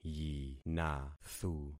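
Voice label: "yinathu", concatenated syllables; Affixing experiment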